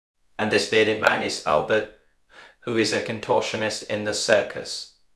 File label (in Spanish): Voice Acting es M